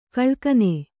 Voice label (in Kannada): "ಘಲಕನೆ", Kannada